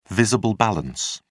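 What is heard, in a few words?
"visible balance", English, British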